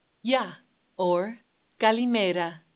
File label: hello, Greek